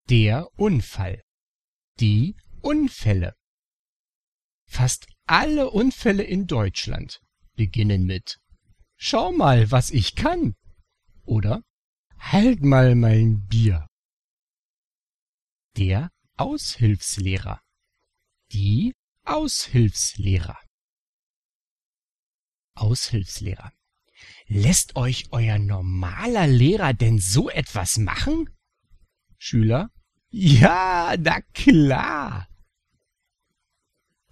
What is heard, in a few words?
German Vocabulary; basic-german; wie-lange-braucht-man-um-deutsch-zu-lernen